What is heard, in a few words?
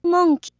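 "monk", Proto-Indo-European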